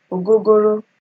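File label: "ogogoro", Nigerian Pidgin, female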